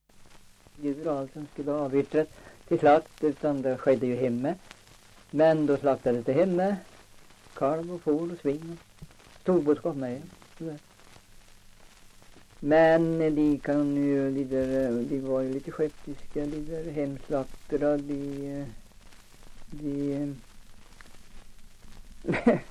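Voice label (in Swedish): Östra Tollstad Östergötland, dialekter; Folkminnen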